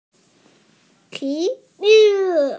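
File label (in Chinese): "ti3yu4", Mandarin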